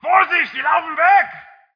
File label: german; SpecOps2